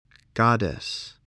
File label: "goddess", spelling